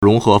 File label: "rong2 he2", Chinese